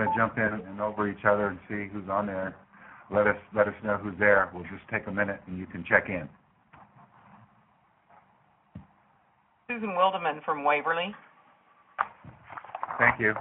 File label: Conference Call